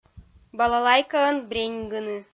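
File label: "балалайкаӧн бреньгыны", Komi-Zyrian, female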